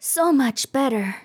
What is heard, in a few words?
healed, RPG Voice; RPG Voice Starter Pack